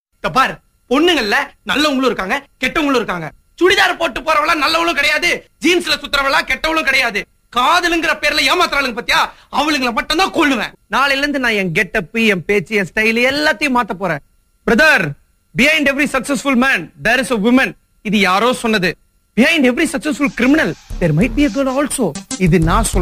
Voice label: Tamil Dialogue